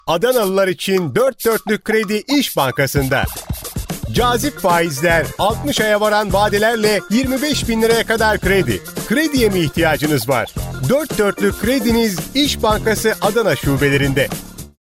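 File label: Turkish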